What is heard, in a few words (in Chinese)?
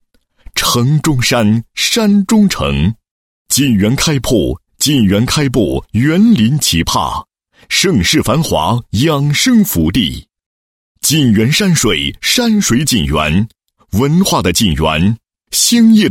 男 房地产广告; 筑梦新家园锦园山水宣传